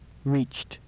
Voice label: "reached", English